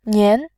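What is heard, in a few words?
"nian", Mandarin, tone 2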